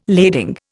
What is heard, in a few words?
"lading", Indian